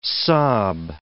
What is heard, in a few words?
"sob", english